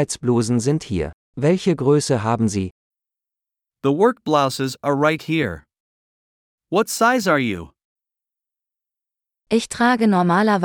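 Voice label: Shopping for Clothes - Dialogue (with translations), German; de-en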